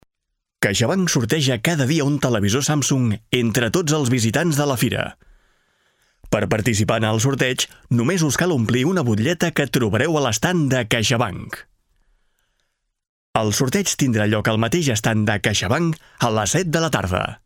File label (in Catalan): Veu Masculina